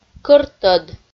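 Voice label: "кӧртӧд", Komi, female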